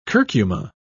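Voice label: "curcuma", British English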